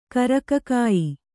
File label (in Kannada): "ಕರಕಕಾಯಿ", kannada